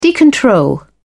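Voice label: "decontrol", British English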